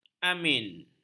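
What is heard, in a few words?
"amin", Malay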